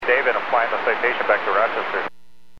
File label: Aviation 121.5 Emergency; Radio